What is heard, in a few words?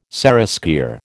"seraskier", American